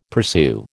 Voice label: "pursue", American